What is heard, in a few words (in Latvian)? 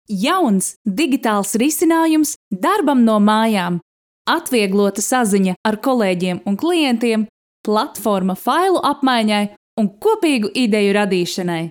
Reklama